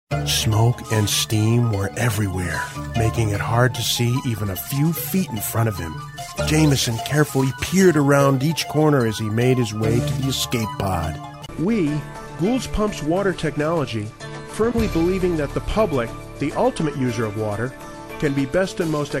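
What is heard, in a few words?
English American male voice narration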